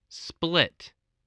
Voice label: "split", voice